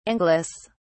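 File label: "anglice", British English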